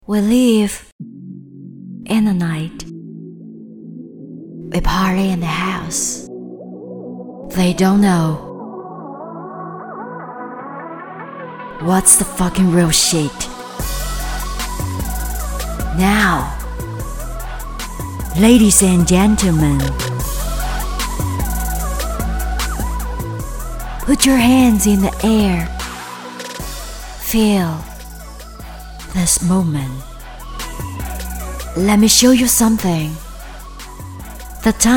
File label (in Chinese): 女 专题 高端英文 夜店迷离女声